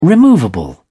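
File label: "removable", English, British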